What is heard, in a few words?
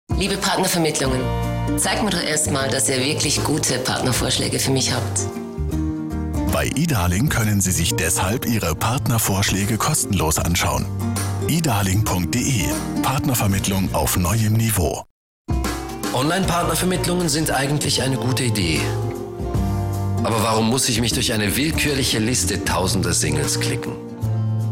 tv spots; edarling